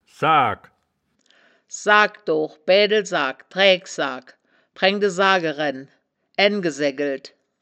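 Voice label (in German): "saagk", Wittlicher Wörterbuch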